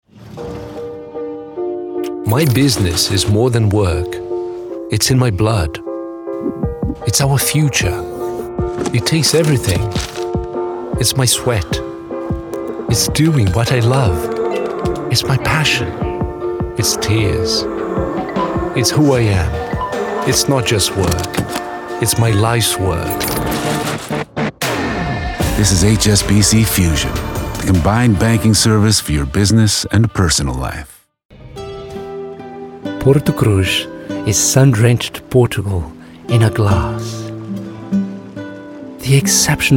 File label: male english with brazilian portuguese accent; brazilian french and brazilian portuguese